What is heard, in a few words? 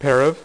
"PAIR OF", Dealer's Speech; PowerPOKER